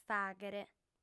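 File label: "fàghere", female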